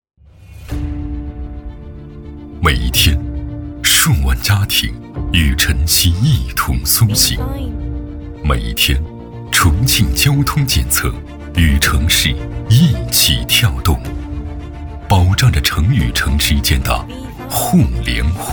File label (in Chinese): 男 宣传 企业 片 大气厚重; 重庆市交通工程质量检测有限公司